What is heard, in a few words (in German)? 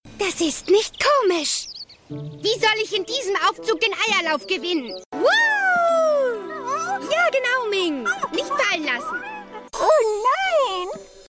Synchron animiert Kinderserie; LOFT VOICES